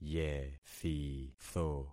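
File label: "yethitho", concatenated syllables; Affixing experiment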